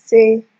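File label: "say", Nigerian Pidgin, female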